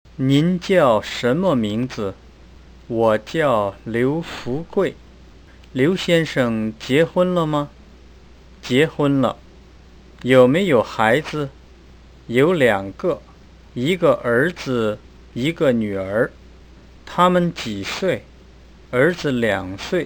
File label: dialog, Chinese; Chinese101; Lesson4